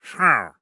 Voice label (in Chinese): 流浪商人否定声